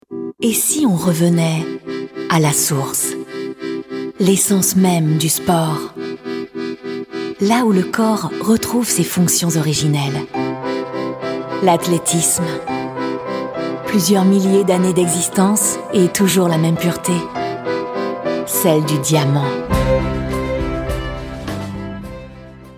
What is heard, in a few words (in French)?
Voix off; Bein Sport; Diamond League; bande annonce bein sports diamond league